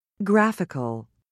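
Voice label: "graphical", British English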